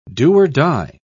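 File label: "do-or-die", British English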